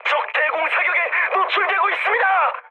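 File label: Korean damaged aa mood high, speech; War Thunder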